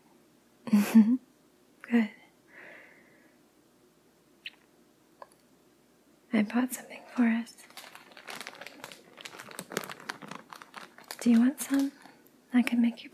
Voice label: Short Early Morning ASMR Destress [whispering][crinkling][clothing sounds][soothing]